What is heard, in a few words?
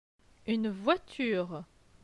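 "Une voiture", French; phonetique; les voyelles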